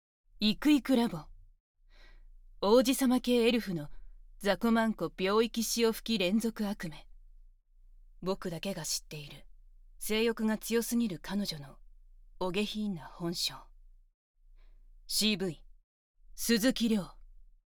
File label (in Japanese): タイトルコール, ASMR